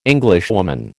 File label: "Englishwoman", American